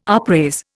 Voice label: "upraise", Indian

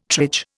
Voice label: "triage", Indian

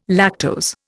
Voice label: "lactose", Indian

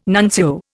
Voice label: "nuncio", Indian

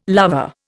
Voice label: "larva", Indian

